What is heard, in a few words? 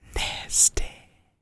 DIALOG, FEM SPOKEN; R&B & Dance; ILIO; Vocal Planet